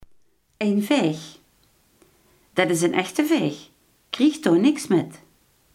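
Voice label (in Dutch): iemand die bijdehand is, Limburgse gesproken woordenboeken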